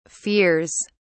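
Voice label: "fears", British English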